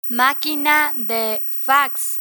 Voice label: maquina de fax, Spanish; office supplies; learn spanish